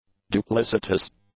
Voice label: "duplicitous", English; adjectives